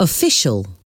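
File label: "official", English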